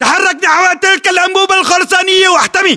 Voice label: order cover concrete pipe, battle chatter, english